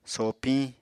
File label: "sopiñ", Apatani; [sopĩ] n. lizard